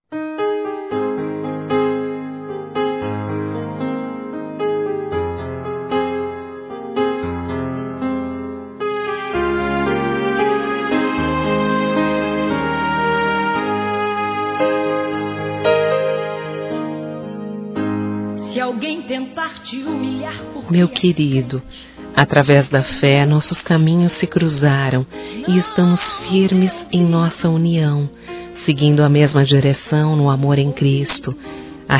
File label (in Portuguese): Mensagem Romântica Feminino, Telemensagens Gospel; Telemensagens 2017